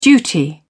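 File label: "duty", British English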